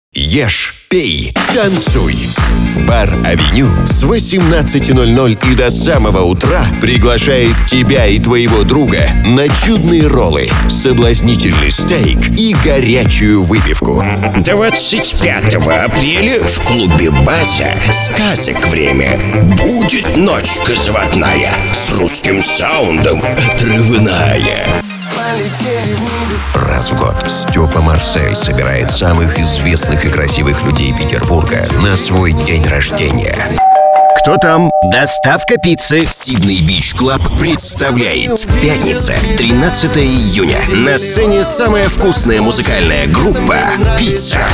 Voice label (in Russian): Мужской голос